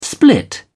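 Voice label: "split", British English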